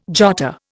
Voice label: "jotter", Indian